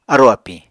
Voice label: "arò-apiñ", Apatani